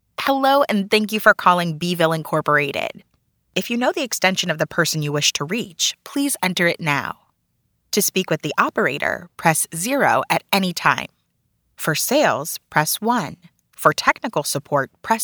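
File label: Phone Prompts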